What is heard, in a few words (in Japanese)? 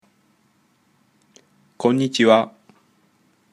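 あいさつ, japonais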